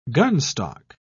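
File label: "gunstock", British English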